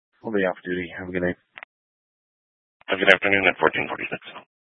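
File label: Dispatch, Scanner audio; Troop 2D Dispatch (Huerfano, Pueblo, W. Las Animas)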